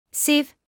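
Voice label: "sieve", British English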